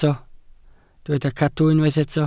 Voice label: "cadw", Welsh